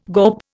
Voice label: "gawp", Indian